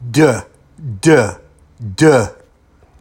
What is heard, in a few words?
D Voiced